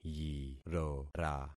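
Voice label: "yirora", concatenated syllables; Affixing experiment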